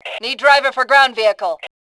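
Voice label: "need driver", female voice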